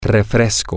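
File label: soda, Spanish, male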